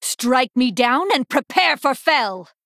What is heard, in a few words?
female blood elf